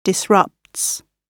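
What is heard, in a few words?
"disrupts", British English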